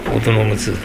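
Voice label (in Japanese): "tonoguzu", 南山形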